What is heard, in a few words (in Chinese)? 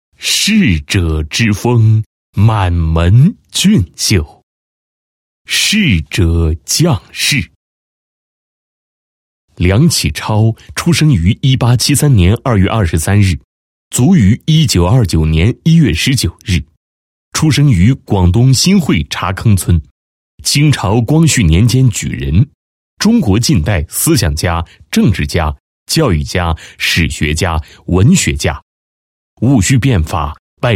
男 人物介绍; 梁启超家学思想介绍